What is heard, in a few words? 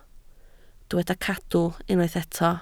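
"cadw" Welsh, spoken word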